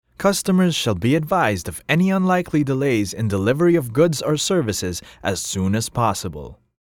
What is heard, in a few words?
English, corporate product tutorial